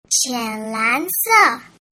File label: "qian lan se", Mandarin